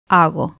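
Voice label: "hago", Spanish, cuba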